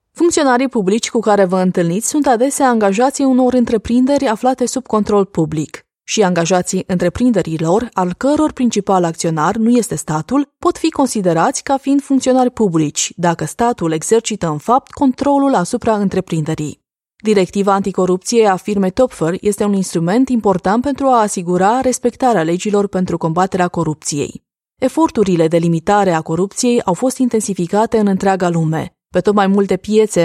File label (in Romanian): Romanian Corporate, Female